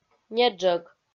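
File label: "неджӧг", Komi-Zyrian, female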